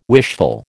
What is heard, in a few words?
"wishful", American